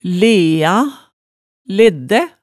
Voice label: "lea", hemsedalsmaalet tale

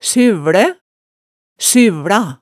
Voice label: "syvlæ", hemsedalsmaalet

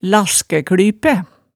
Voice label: "laskæklypæ", hemsedalsmaalet tale

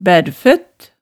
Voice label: "bærrføtt", hemsedalsmaalet tale

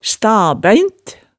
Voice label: "stabæint", hemsedalsmaalet

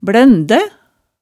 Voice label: "blænde", hemsedals maalet tale

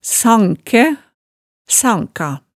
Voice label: "sankæ", hemsedalsmaalet

